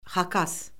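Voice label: "xakas", Azerbaijani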